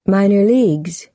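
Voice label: "minor leagues", American English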